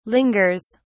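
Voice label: "lingers", British English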